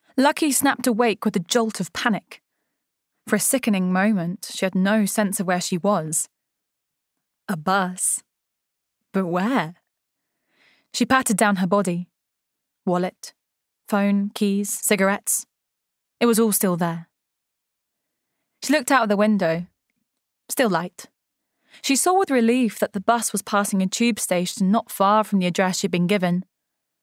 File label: Audiobook